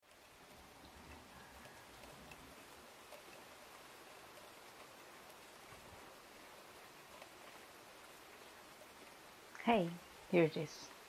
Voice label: Sweet firsts, First kiss, Older woman, Younger man, Younger woman, Sfx, Rain sound; Heartbeat; F4A; Rain sounds